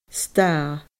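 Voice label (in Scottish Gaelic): "stàth", Scottish Gaelic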